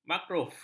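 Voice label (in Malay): "makruf", Malay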